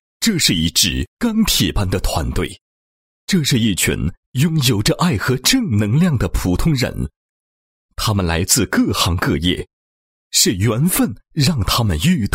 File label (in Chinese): 男 开场词 演讲开场词; 吉林启航泰森8